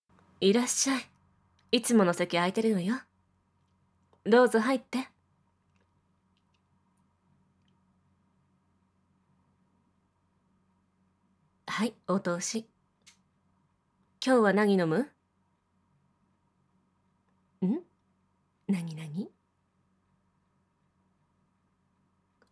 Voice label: 効果音なし, ASMR; 人妻横丁２

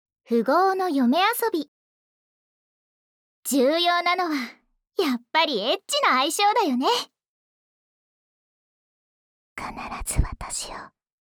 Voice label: 標題朗讀

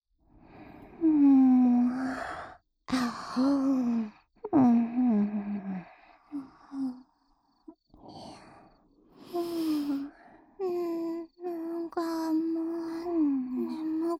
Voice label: あまあま添い寝, ASMR

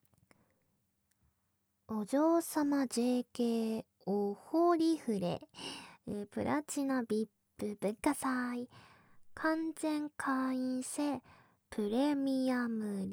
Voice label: フリートーク

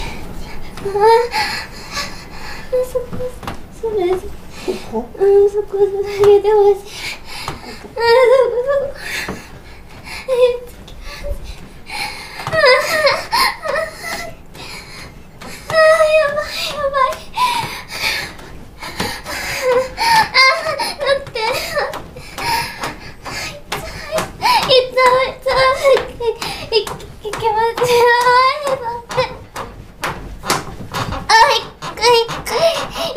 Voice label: 癒やし系Voice 21歳; カフェ店員

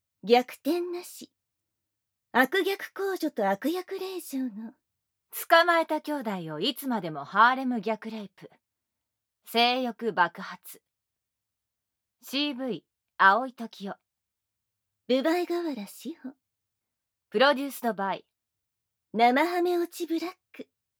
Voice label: タイトルコール, ASMR

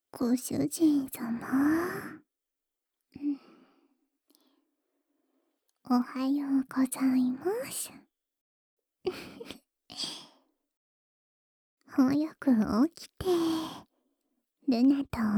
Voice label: おはようアラーム; ルナの特製♪むちゃ甘アラーム音声; ルナリア

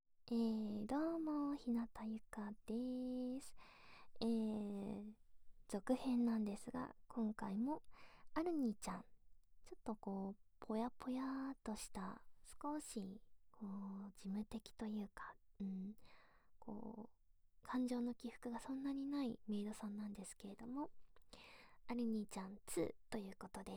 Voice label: フリートーク, ASMR

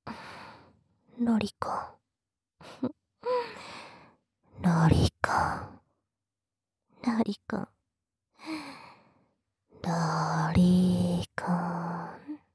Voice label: 囁き淫語連呼; SEなし

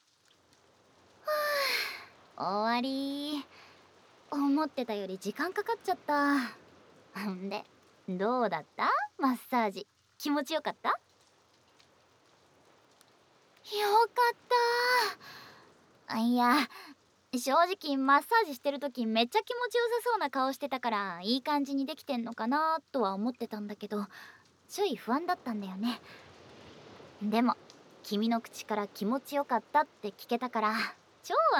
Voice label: ASMRパート; 君もまだ物足りなさげじゃん？

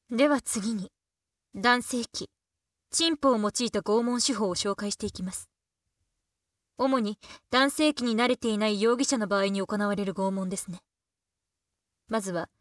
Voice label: イラマチオを用いた取り調べ ビデオ風加工あり SEあり; 取り調べ記録3; 容疑者No4498

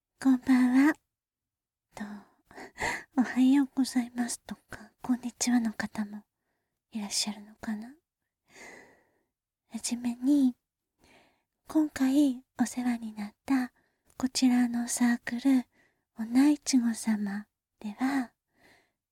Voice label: 実演 ノイズ除去

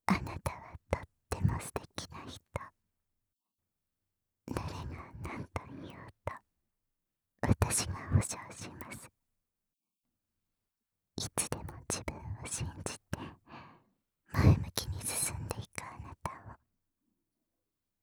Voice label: 全肯定ささやきボイス

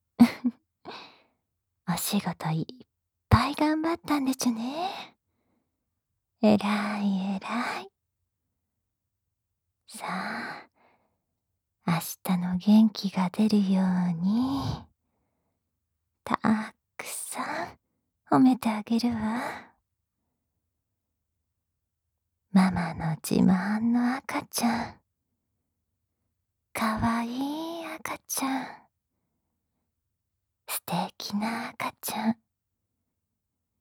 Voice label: ほめほめ癒しボイス, ASMR; SE無し

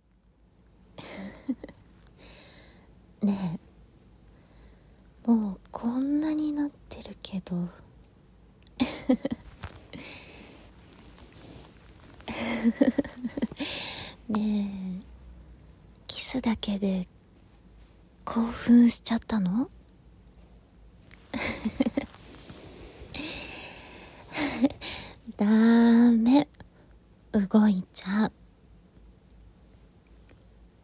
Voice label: 言葉責め, ASMR

